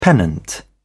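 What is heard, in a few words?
"pennant", English, British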